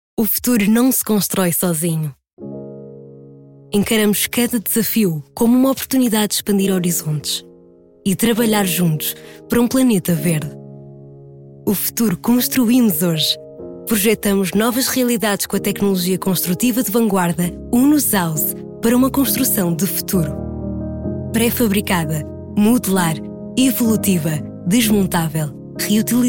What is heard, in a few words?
Spot